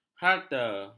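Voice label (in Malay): "harta", Malay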